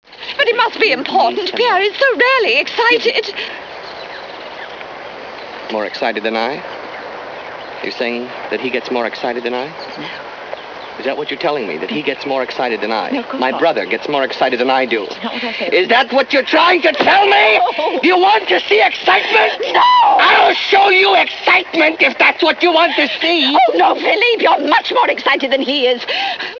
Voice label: excited